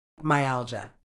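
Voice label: "myalgia", British English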